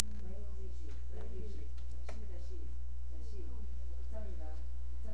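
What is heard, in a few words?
"ring", spoken word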